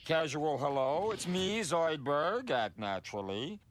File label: casual hello